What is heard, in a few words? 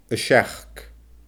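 "a seachd", Scottish Gaelic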